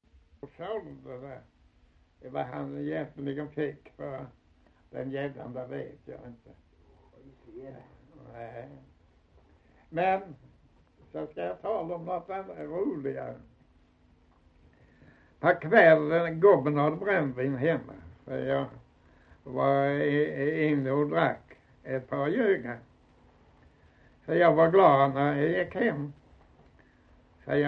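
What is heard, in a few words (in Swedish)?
Osby Skåne, svenska sagor dialekter; Folkminnen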